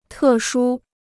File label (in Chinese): "特殊", Chinese